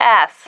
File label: "ass", female